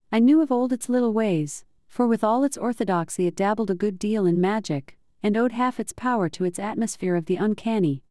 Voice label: Orthodoxy synthetic